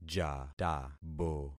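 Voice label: "jatabo", concatenated syllables; Affixing experiment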